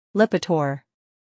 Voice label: Lipitor, drugs dictionary; atorvastatin